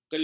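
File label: "keluh", Malay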